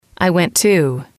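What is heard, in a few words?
"I went to", English, female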